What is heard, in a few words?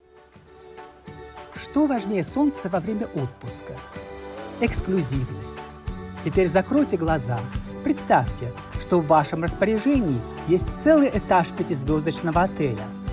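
Russian, Commercial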